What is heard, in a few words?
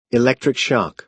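"electric shock", American English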